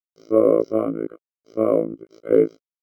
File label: VOICEFX ELCTR 140; Techno2